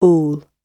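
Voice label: "all", British English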